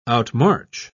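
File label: "outmarch", British English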